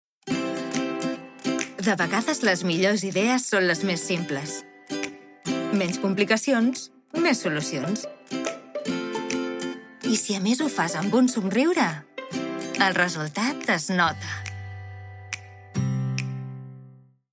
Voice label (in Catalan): FUNNY CAT